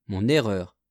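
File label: "mon erreur", French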